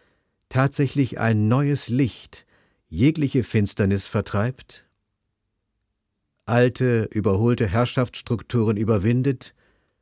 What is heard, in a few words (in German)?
AUFERSTEHUNG Text Sprecher